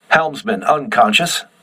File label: voice message helmsman contused, crew ships english